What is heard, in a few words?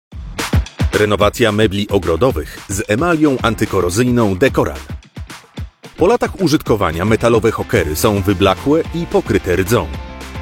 polish voice corporate